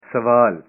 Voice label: question, punjabi

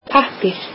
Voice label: icelandic paper

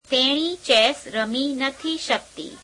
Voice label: gujarati cant she play chess

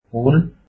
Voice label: flower, hindi

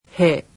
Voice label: "he", japanese; alphabet

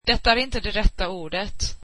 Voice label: this is not the correct word, swedish

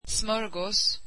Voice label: swedish sandwich

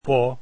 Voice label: esperanto alphabet f

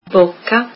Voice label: mouth, italian

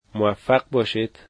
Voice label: Good luck, dari

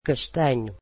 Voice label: "brown", Portuguese

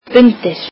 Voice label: "under", Icelandic

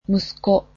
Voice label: "son", japanese

